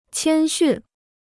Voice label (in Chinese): "谦逊", Chinese